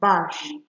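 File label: "bash", Nigerian Pidgin, female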